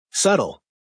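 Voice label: "Subtle", English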